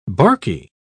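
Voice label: "barky", British English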